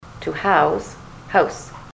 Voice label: to house house, ontario